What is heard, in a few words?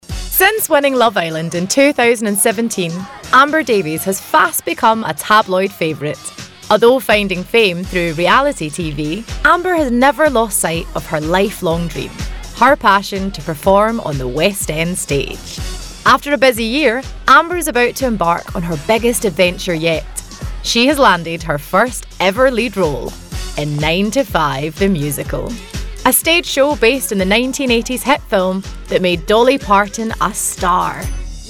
Documentary